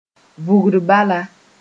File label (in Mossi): "vugr bala", moore